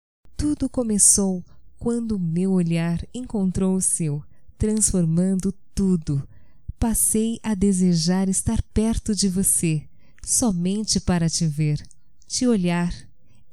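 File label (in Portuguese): Quero voce, female, Telemensagens, Só Voz; Telemensagens 2017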